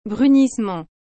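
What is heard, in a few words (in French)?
"brunissement", French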